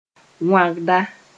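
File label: "wãgda", Mooré; vowel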